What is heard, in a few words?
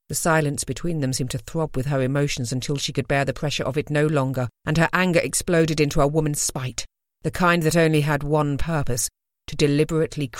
Audiobook